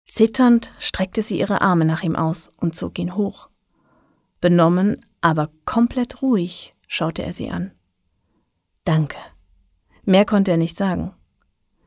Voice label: story